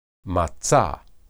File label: "matsa", Hebrew